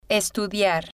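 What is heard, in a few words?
"estudiar", female, spanish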